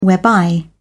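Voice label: "whereby", British English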